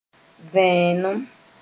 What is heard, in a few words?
"vẽenem", moore